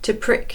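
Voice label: "piquer", English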